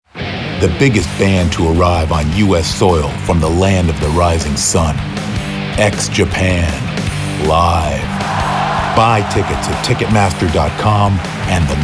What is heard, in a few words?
Promo